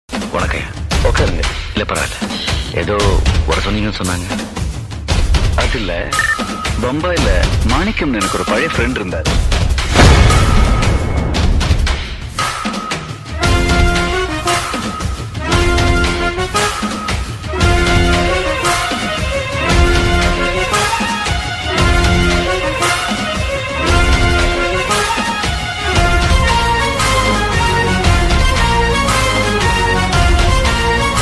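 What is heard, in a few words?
dialogue, Tamil, mass background music; basha; rajinikanth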